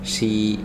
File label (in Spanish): Zapoteco nariz; miahuatec